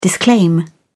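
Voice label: "disclaim", English, British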